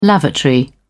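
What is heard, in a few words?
"lavatory", British English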